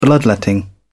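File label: "bloodletting", British English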